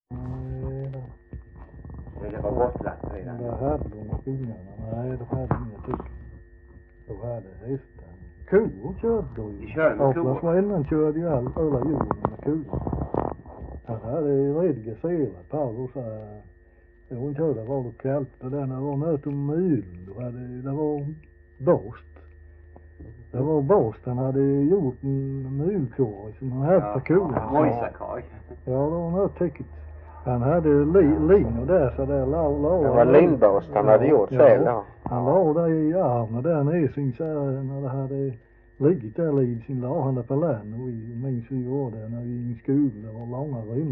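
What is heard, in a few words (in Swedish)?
Glimåkra Skåne, Svenska sagor dialekter; Folkminnen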